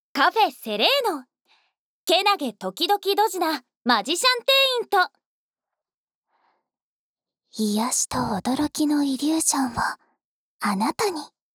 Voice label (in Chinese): 标题朗诵; 簡体中文版; Cafe『Sereno』～健気ときどきドジな、マジシャン店員と。コーヒーミル/カードシャッフル/チタン耳かき～